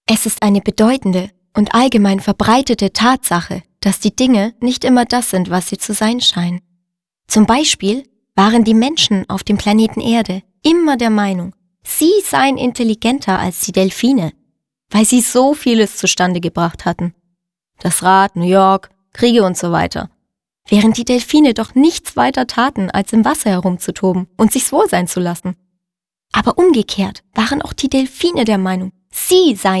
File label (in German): Erzaehler